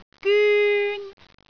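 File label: "gyun1", Cantonese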